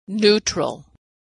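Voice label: "neutral", American English; vowel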